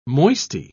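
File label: "moisty", British English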